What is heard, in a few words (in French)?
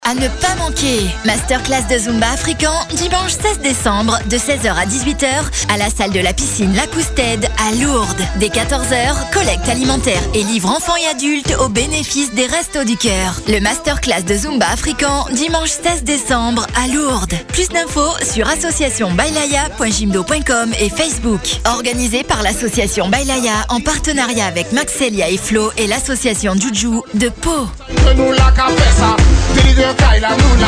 pub african